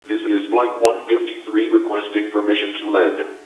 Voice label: request to land, intercom; ProjectMobius